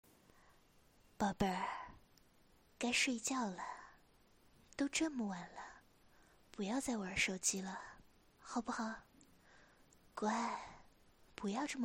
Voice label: 御姐音

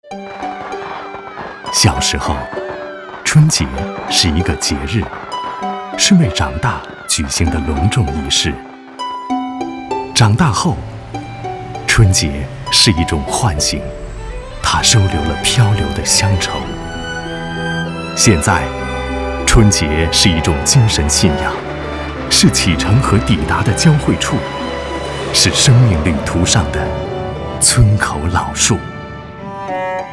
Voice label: 公益广告春节; 央广

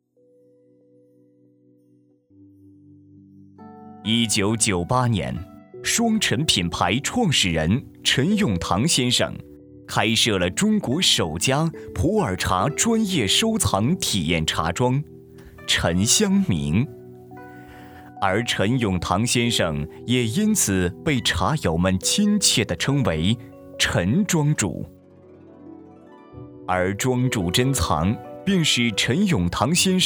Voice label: 专题叙述产品宣传; 庄主臻藏

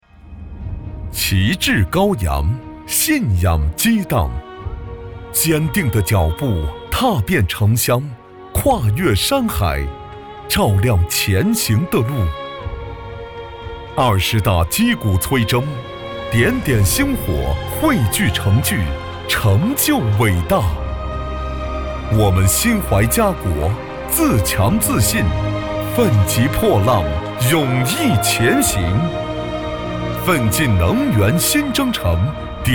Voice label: 大气宣传; 国网厦门供电公司

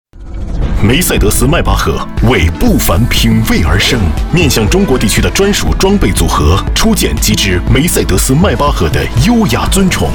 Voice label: 高端档次; 迈巴赫

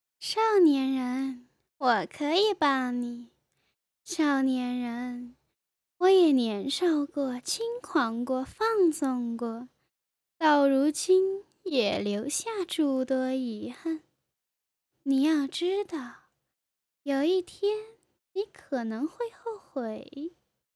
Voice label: 动漫配音

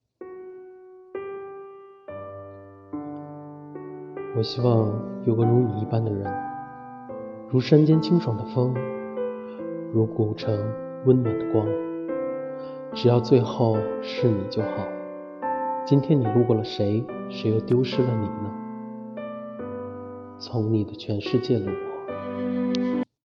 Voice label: 旁白念白电台

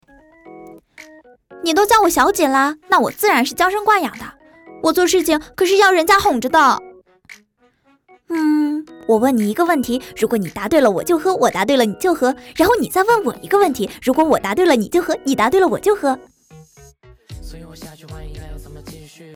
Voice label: 古灵精怪类大小姐

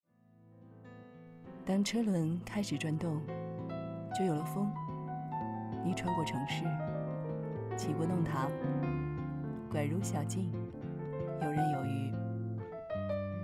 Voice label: 广告

